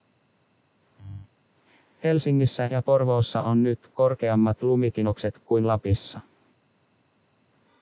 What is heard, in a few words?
average voice